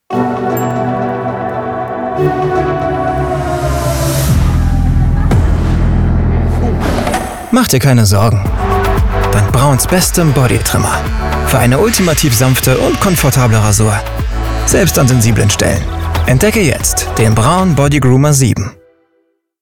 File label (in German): Werbung Rasierer, Commercial, German; voice